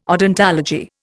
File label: "odontology", Indian